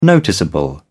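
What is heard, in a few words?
"noticeable", British English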